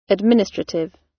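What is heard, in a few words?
"administrative", British; Crime and punishment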